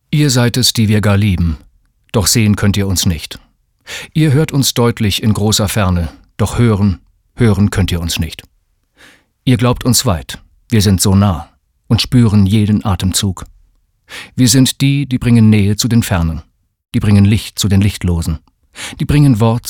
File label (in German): sachlich, maenner; Sprecher